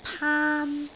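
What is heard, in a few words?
"paan1", Cantonese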